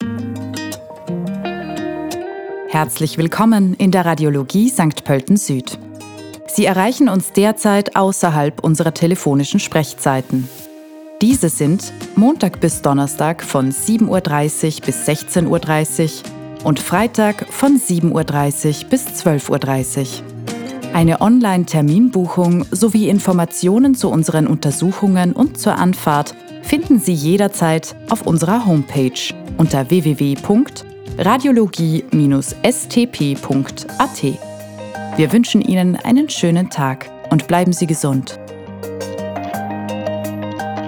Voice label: Ansage ausserhalb Öffnungszeiten

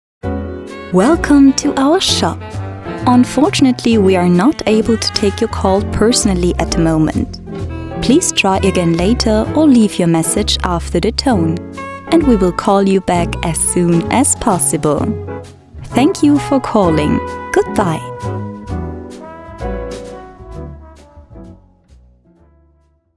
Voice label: Telefonansage Englisch

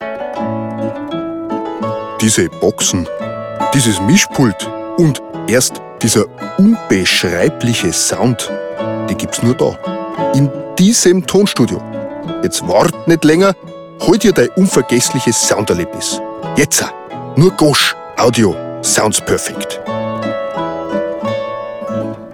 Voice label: zärtlich dialekt

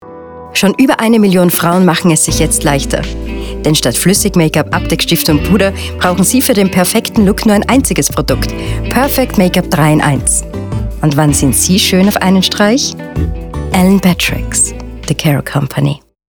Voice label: Werbung

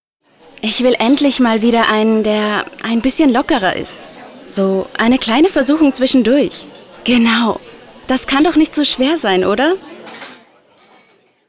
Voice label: Werbung; ON

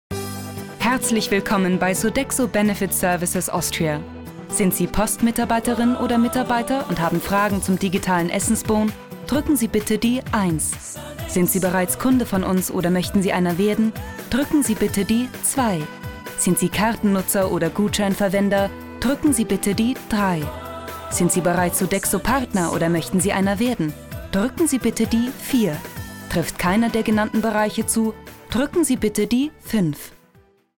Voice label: Tonband; Sodexo

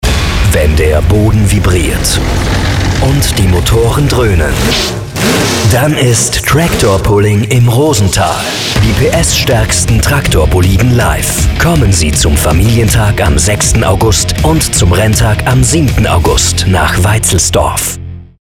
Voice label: WERBUNG Tractor Pulling

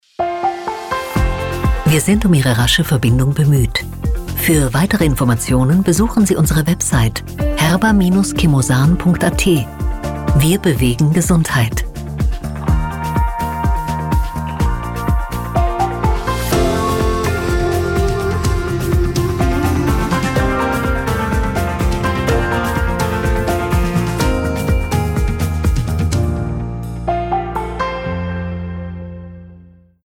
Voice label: Telefonansagen Wartetext; HERBA

